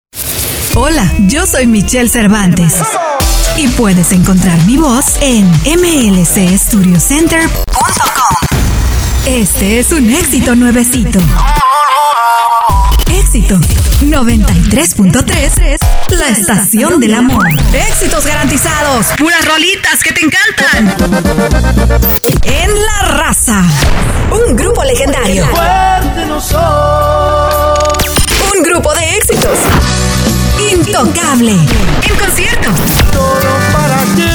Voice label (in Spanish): imagen, voces